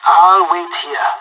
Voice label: waiting here, pirate; Counter Strike Model Pack; CS:GO